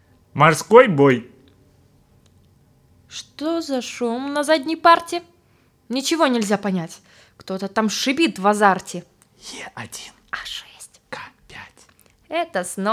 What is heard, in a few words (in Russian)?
стихотворение; Б.Заходер; Морской бой